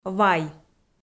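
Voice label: "вай", Komi-Zyrian, female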